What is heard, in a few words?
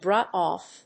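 "drop off", English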